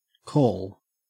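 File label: "call" English, Australian accent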